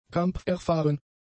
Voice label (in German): "kampferfahren", German; adjectives